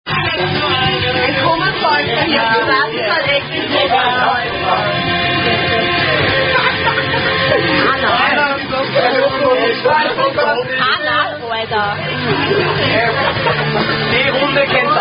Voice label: German Uno Card Drinking Game Contest Between Two People